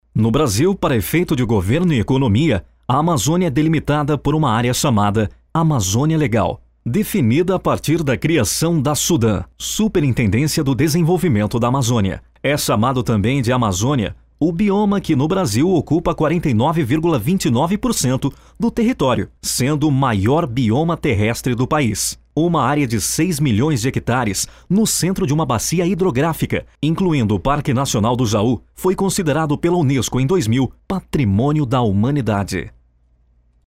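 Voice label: Brazilian Portuguese; foreign languages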